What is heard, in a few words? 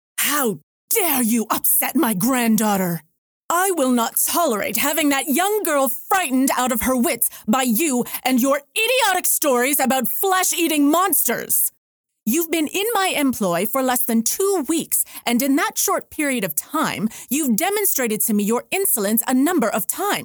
Persnickety Woman